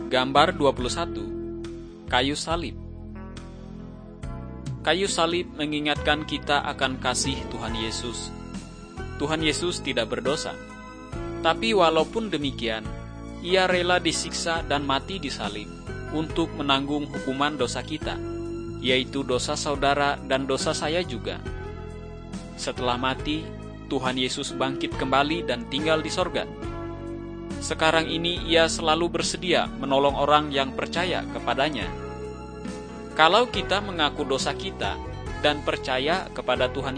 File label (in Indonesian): kabar baik good news bahasa indonesia; malay